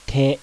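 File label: kana "TE", Japanese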